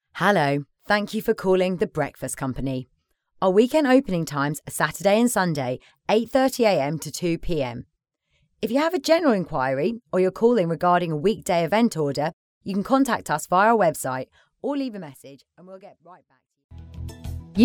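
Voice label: Female English ivr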